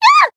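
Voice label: Voice damaged, Japanese; channon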